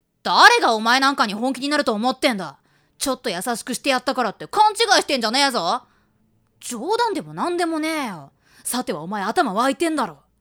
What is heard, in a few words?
boy